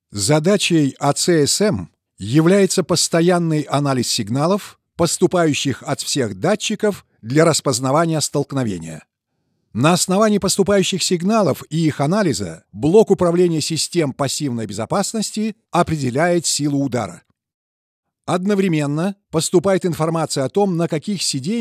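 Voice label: Russian, male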